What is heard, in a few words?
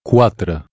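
"quatre", Catalan, male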